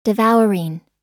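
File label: "devouring", American English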